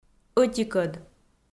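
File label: "ӧтикӧд" Komi, female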